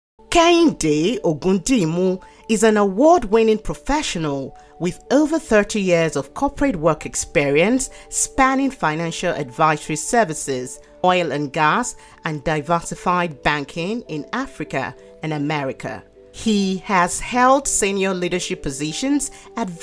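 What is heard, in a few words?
Yoruba, female, English, Nigeria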